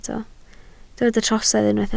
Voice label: "trosedd", Welsh